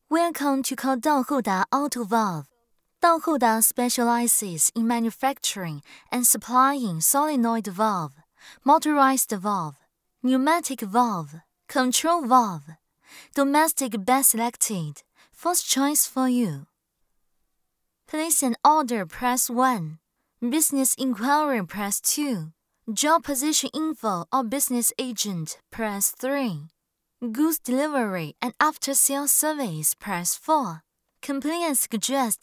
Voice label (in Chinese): 女 英文彩铃